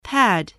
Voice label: "pad", English

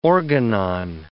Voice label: "organon", English

